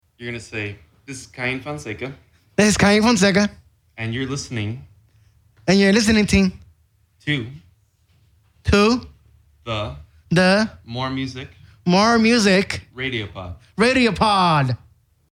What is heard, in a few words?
promo long